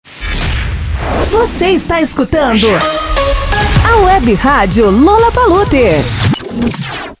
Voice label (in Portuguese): Vinheta Falada